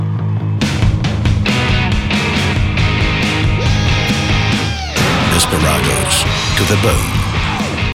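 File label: doblaje, French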